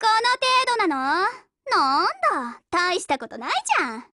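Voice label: WIN Japanese, Voice; GF Alarm; Destroyer Christmas buhuo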